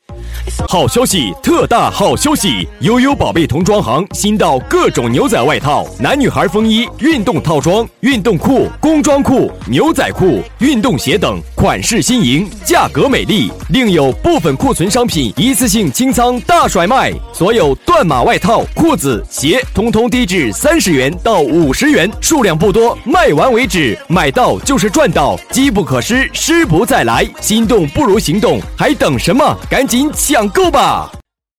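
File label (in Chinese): 男79 【促销】童装行; 实惠大气; 优优宝贝童装行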